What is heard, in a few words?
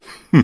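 story, Japanese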